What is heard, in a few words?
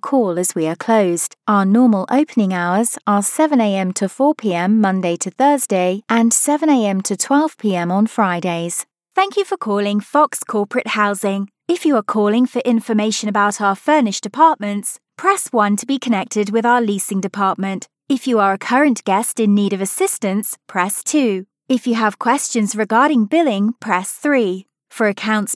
IVR On Hold